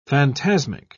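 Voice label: "phantasmic", English, British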